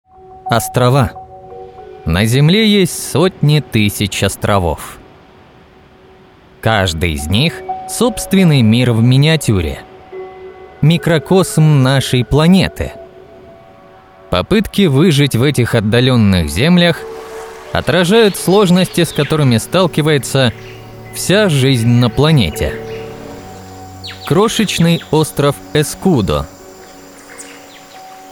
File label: RUS